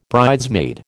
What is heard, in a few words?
"bridesmaid", American